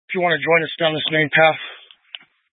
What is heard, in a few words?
Incident Tac 3, scanner